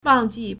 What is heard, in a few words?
"wang4ji4", Chinese